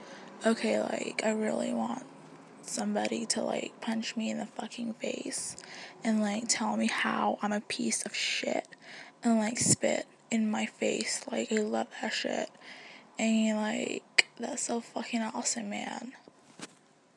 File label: my american accent